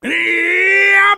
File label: "yeeup", voice; ooh ahh